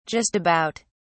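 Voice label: "just about", British English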